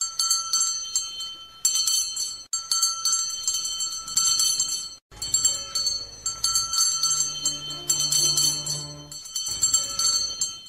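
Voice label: sound of street vendor calling selling ice cream; Tieng rao ban kem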